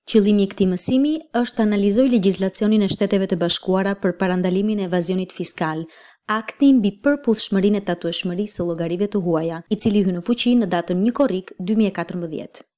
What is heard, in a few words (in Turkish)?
Arnavutluk Kadin; arnavutca seslendirme